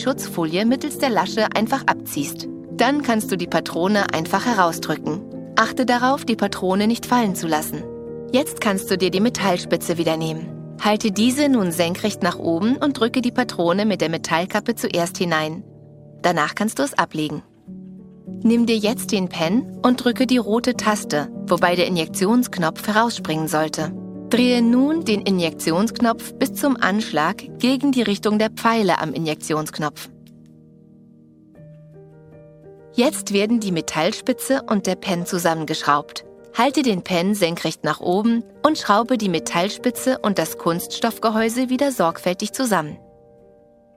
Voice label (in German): Erklaervideo medizinisch kurz, Tutorial, German; Erklärvideo (medizinisch)